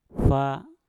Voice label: "fa" beli, Mpur

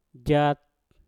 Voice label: "jat" berenang, Mpur

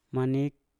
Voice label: "manip" lebah, Mpur

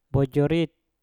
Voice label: "pojorit" panjang, Mpur

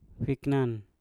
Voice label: "fiknan" kulit, Mpur

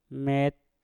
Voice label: "met" kotor, Mpur

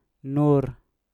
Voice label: "nur" tiga, Mpur

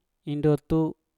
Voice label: "indotu" sendiri, Mpur

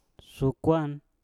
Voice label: "sukwan" papeda, Mpur

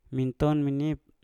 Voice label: "minton menip" anak laki-laki, Mpur

